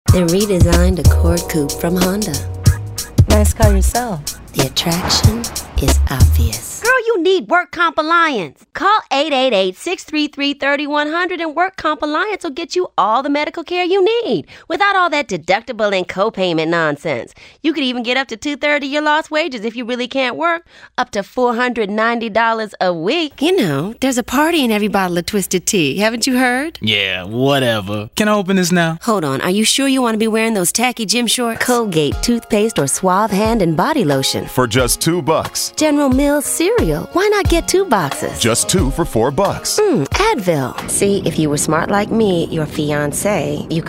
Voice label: commercial